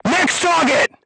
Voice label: voice message target destroyed, crew tanks vietnamese